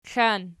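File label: "klan" nominative, Archi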